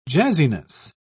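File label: "jazziness", British English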